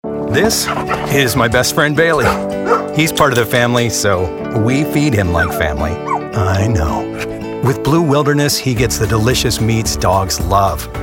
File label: Commercial